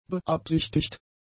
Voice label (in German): "beabsichtigt", German; adjectives